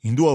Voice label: "induva", Rocca (sud)